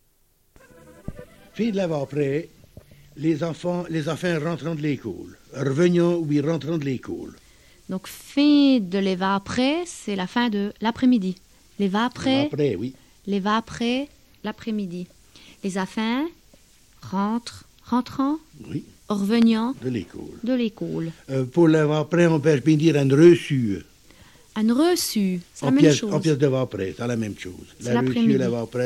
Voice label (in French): Recit patois